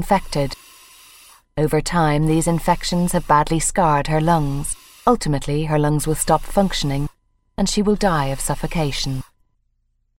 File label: Narration Cystic fybrosis